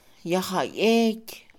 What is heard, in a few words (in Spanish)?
Mixe, Mujer; asesinar; INALI